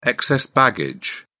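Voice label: "excess baggage", British English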